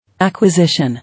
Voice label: "Acquisition" English